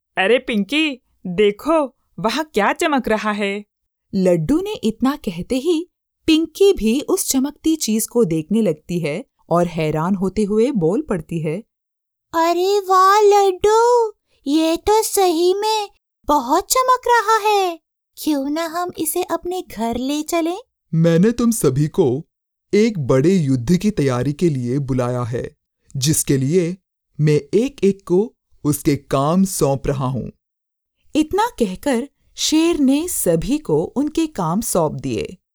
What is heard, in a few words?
character Voices in Hindi